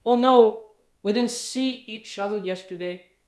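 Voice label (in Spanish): Voice Acting, Spanish, male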